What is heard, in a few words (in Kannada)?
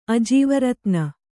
"ಅಜೀವರತ್ನ", kannada